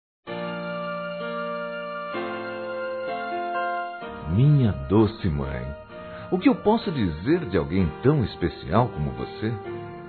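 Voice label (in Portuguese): MÃE AMIGA, male, Telemensagens Dia das Mães; Masc; Telemensagens 2017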